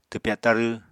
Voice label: "twpya tarw", Apatani